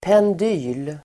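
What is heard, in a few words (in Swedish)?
"pendyl", Swedish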